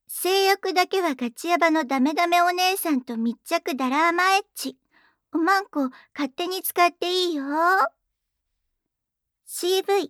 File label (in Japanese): タイトルコール, ASMR